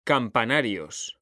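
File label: "campanarios", Spanish, male; mekanta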